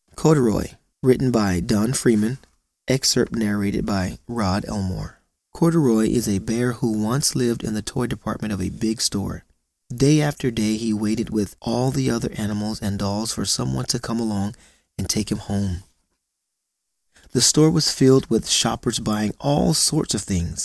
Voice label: AudioBook narration; Corduory

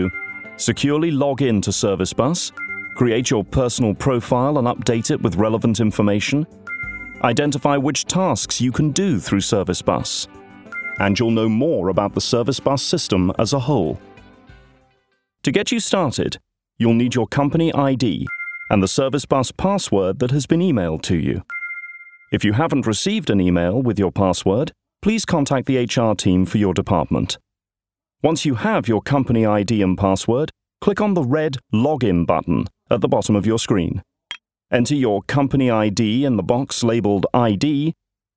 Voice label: Corporate E-Learning

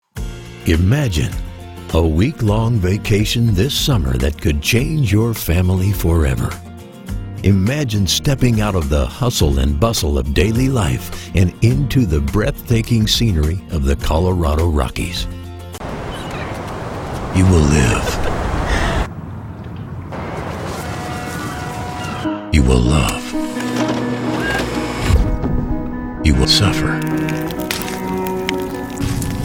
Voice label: Promo

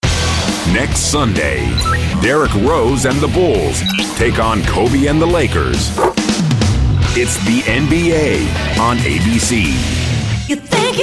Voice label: Promo

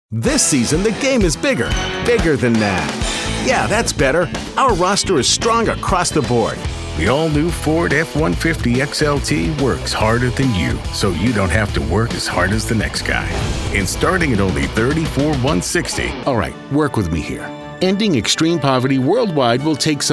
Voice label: General Mono

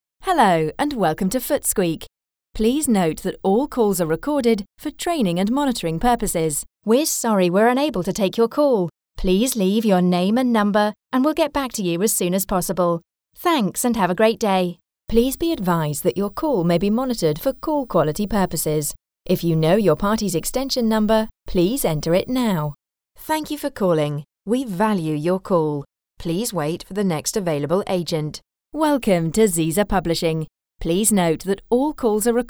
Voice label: IVR

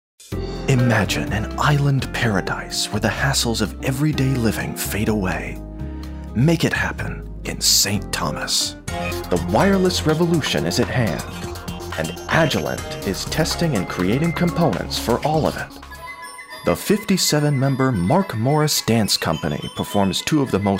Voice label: Spots

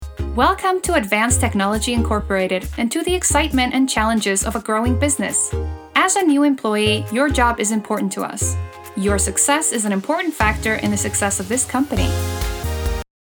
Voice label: technology w music

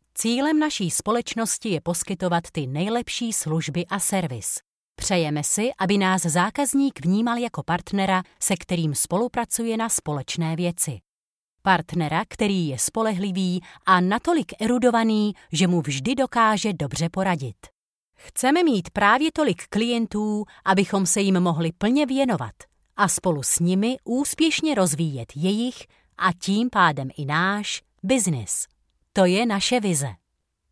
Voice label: Czech

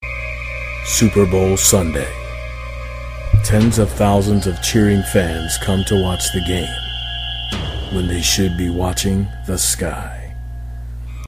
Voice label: Movie Trailer

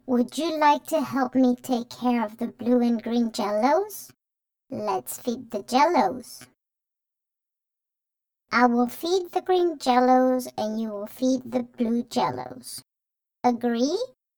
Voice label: robotic

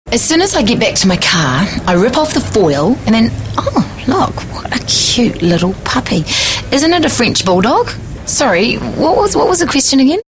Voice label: Natural

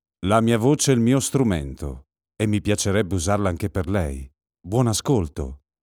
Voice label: MY VOICE MY TOOL INTRODUCTION EXPLAINER

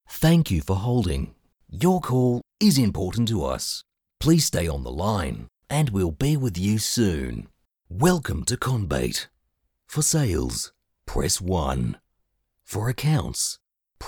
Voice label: On Hold

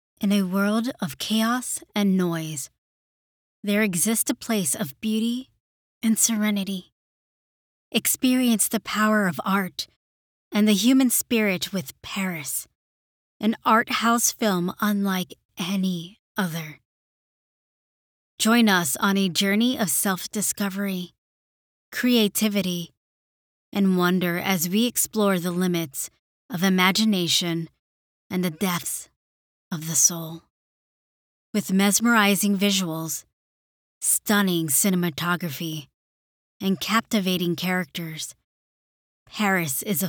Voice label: Movie Trailer Intriguing and Distinctive

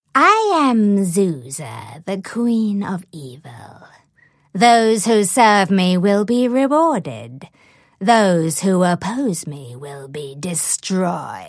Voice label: Toys Animation Wicked Witch

